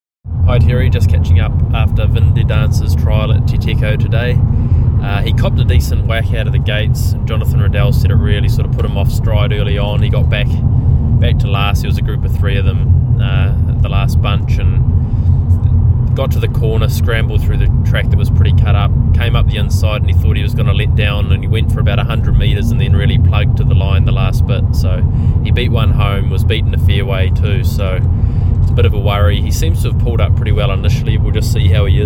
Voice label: voice note; Vin De Dance